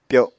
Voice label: "pyo7", Apatani